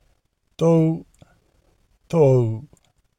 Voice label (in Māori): Tou Tou, te reo maori